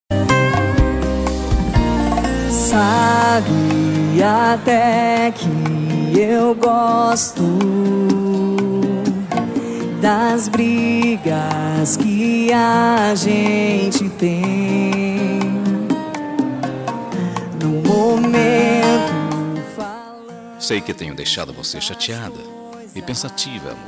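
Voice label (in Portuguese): DESCULPAS ROMANTICAS male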